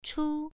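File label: "chu" Mandarin, first tone, pronunciation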